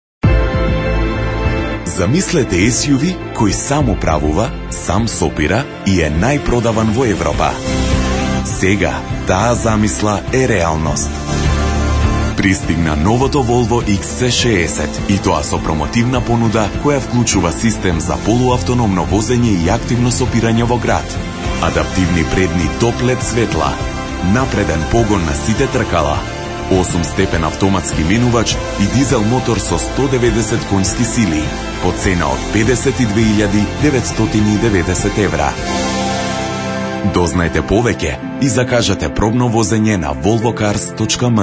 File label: macedonian automotive